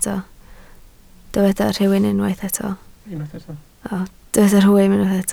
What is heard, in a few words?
"rhwym", Welsh